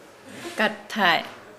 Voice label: "fishing net", Nukuoro

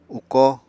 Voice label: "ukó", Apatani; Material Culture

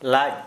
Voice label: "Stomach", Santali

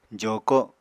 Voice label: "joko", Apatani

